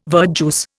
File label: "verjuice", Indian